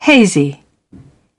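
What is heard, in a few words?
"hazy", British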